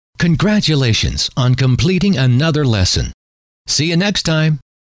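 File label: congratulations on, male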